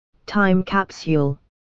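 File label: "time capsule", English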